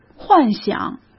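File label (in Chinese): "幻想", Mandarin Chinese; hsk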